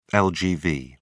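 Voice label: "lgv", British English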